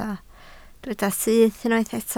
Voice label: "syth", Welsh